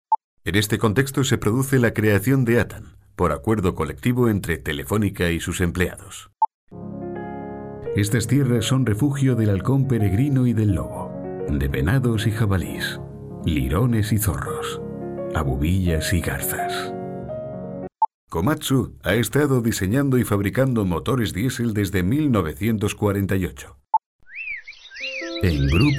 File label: Male Spanish Euro